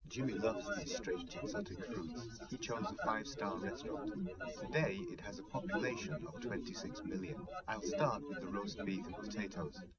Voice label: male, liverec, src; SiSEC08; SiSEC underdetermined

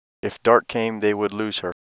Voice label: speech M

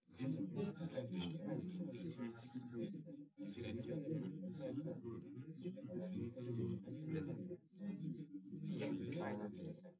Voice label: male, live rec

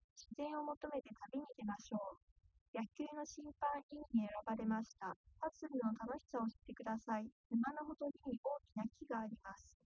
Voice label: female liverec 130ms 5cm sim; SiSEC08; SiSEC underdetermined

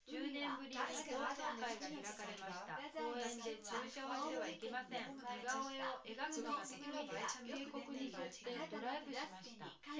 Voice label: female synthconv

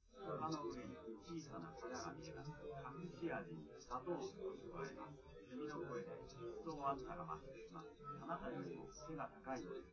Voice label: male live rec; SiSEC10; underdetermined

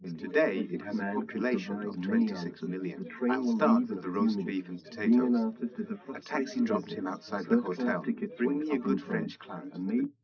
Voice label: male4; SiSEC10; underdetermined

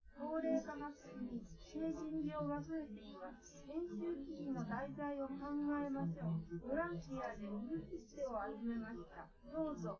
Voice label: female live rec; SiSEC10; underdetermined

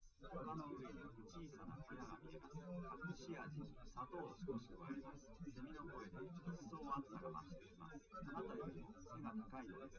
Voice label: male, live rec; SiSEC08; SiSEC underdetermined

